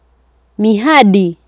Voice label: "mihadi", Swahili